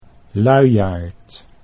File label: "luiaard", Dutch